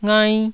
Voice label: "ngai1", taiwanese syllables